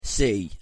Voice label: pronunciation en c